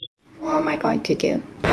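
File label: Voice Acting Spanish Female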